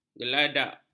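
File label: "geladak", Malay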